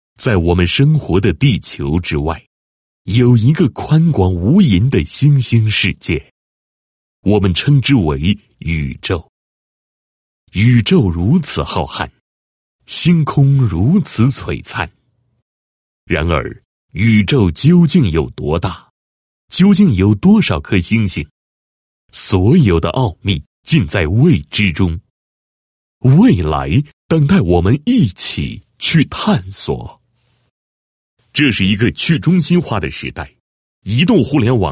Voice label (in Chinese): 男 视频脚本 开场视频脚本, 国语男声配音